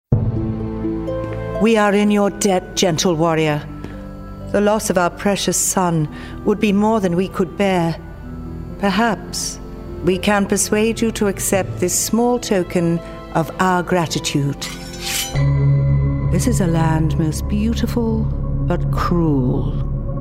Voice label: Gaming Character